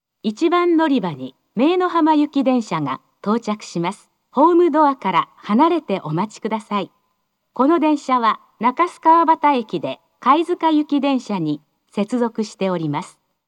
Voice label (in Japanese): 接近-姪浜行（中洲川端駅で貝塚行き接続）, 駅放送; 福岡市地下鉄 空港線 福岡空港駅